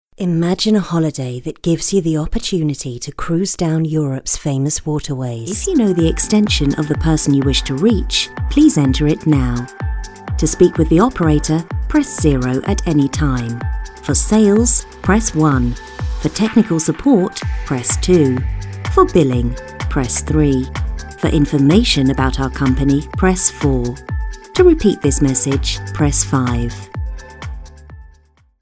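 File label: UK PHONE, British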